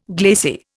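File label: "glaciology", Indian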